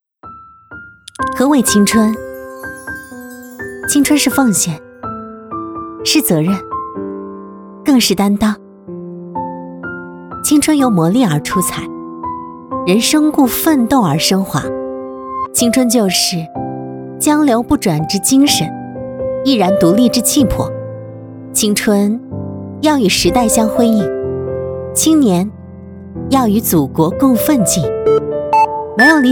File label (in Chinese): 青春走心